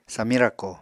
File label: "samiñ rako", Apatani